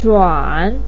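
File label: "zhuan3", Mandarin pinyin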